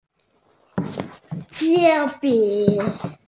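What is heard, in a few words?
"qian1bi3", Mandarin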